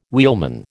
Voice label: "wheelman", American